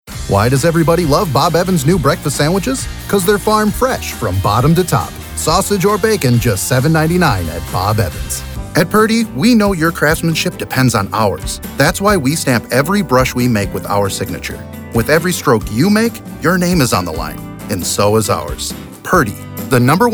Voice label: male commercial